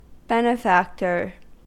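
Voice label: "benefactor", US English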